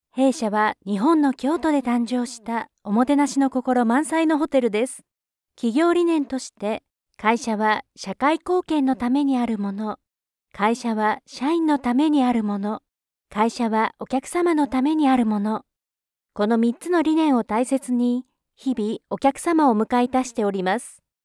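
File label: Japanese, Corporate; GoLocalise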